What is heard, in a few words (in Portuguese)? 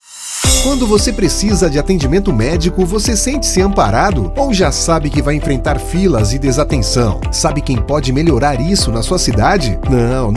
SPOT SAUDE; GRITA